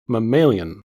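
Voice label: "mammalian", US English; pronunciations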